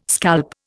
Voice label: "scalp", Indian